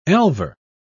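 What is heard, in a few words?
"elver", British English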